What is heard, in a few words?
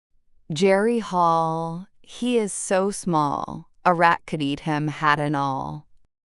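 female US, classic rhymes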